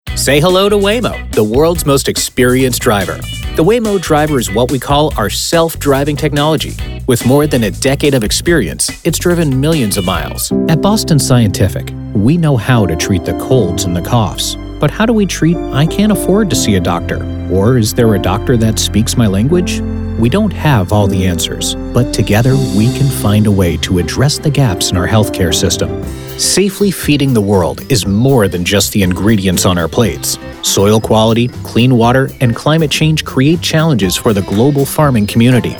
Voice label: Corporate Narration, voiceover